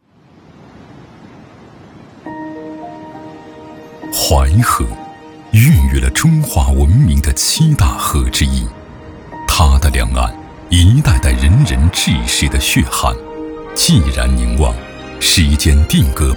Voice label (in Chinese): 男 专题 人物 片 大气厚重; 治淮劳模李秀英